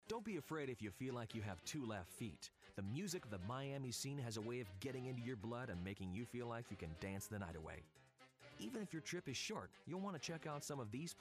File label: Podcast; 10 Best Miami